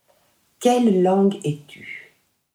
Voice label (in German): franzoesisch